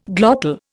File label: "glottal", Indian